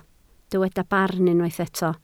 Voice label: "barn", Welsh